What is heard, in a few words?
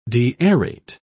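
"deaerate", British English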